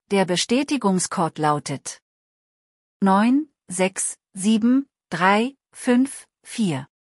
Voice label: ssml example zahlencode colons de